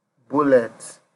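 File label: "bullet", Nigerian Pidgin, male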